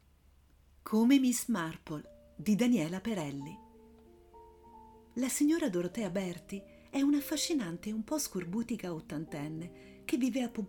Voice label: VOICE F